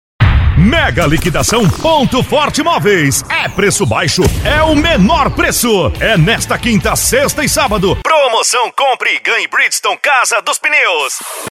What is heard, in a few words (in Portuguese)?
Locução Varejo - Animada; Soluções Em Audio Produtora; Banco De Vozes